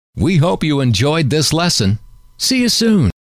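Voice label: we hope you enjoyed this lesson see you soon, male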